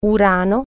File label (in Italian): "uranus", Italian